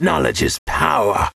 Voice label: Young Mage